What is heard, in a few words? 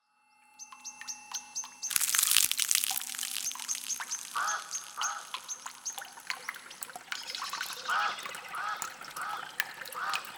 ASMR meditation